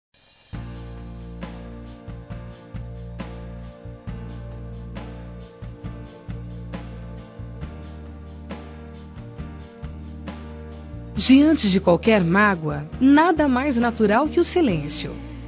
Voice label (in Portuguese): Estou magoada com você, voz feminina; Perdão